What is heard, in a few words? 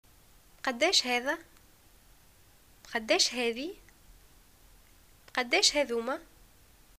shopping how much is this, arabic, tn